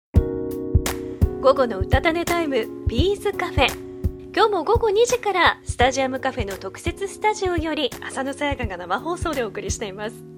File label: Radio